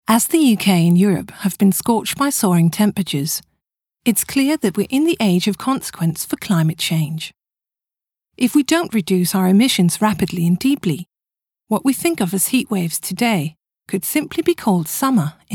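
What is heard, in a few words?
Female British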